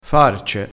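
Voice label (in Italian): "farce", vocabolario dialettale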